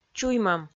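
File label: "чуймӧм", Komi-Zyrian, female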